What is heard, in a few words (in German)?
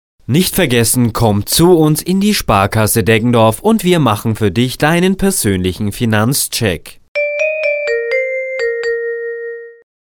Werbung Reminder; Sparkasse